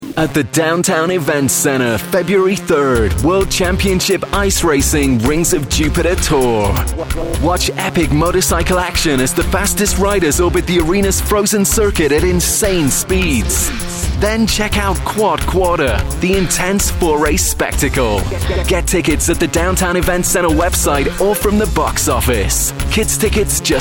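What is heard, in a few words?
Voiceover American Accent, Male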